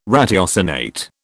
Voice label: "ratiocinate", British

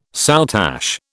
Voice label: "soutache", British

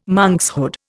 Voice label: "monkshood", Indian

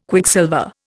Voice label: "Quicksilver", Indian

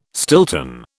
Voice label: "Stilton", British

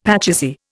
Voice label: "pachisi", Indian; Dictionary

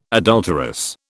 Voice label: "adulterous", British

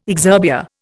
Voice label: "exurbia", Indian

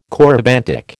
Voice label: "corybantic", American

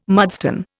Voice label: "mudstone", Indian

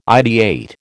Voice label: "ideate", American

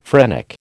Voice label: "phrenic", American

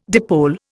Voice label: "dipole", Indian

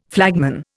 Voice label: "flagman", Indian

